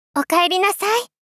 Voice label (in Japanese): お帰りなさいっ！ 大人×中音域